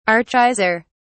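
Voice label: "archaizer", British English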